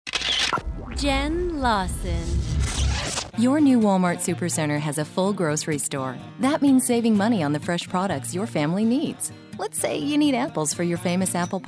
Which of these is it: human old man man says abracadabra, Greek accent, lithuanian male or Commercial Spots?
Commercial Spots